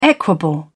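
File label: "equable", British English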